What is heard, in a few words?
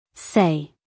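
"say", American English, female; everyone-can-use-english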